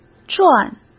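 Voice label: "转", Mandarin Chinese; hsk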